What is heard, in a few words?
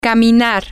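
to Walk, Spanish, female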